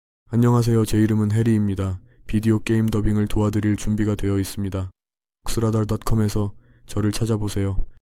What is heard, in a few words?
korean voiceover artist